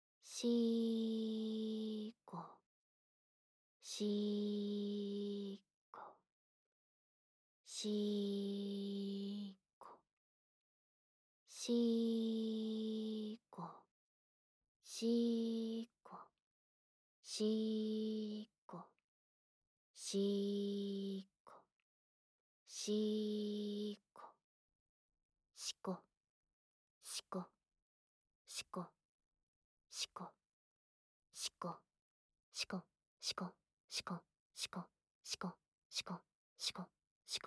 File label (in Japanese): シコシコボイス